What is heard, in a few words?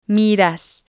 "miras", spanish